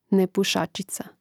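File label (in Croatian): "nepusasica", Hrvatski; mreznik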